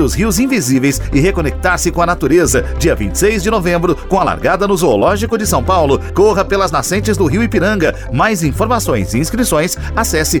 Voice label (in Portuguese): spot circuito; circuito rios e ruas; zoo